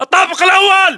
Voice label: location firstfloor generic, localized english battlechatter